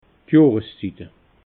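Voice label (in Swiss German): "johrszite", Alsatian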